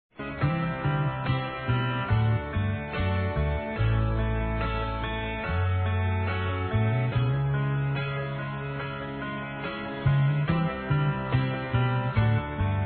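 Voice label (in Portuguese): Namorados Com 1 Ano de Namoro, male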